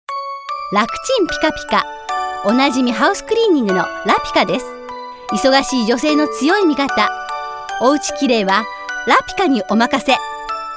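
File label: commercial; lapica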